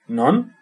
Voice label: "where", basque

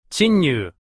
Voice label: "chinnyu" Japanese

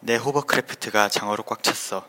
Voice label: hovercraft, Korean

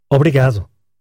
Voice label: thanks, portuguese

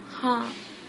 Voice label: goodbye, sinhala

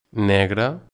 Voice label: "black", Catalan

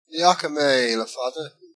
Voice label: long time no see, Irish

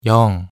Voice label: 0 yeong, korean numbers

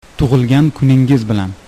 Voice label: happy birthday, uzbek

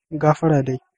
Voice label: excuse me hausa

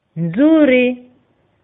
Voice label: fine, swahili

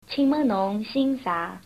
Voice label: name shanghainese

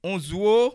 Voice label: thanks, bassa

